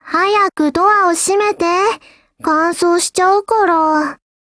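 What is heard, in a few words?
HELLO, Japanese; GF Alarm; ARX160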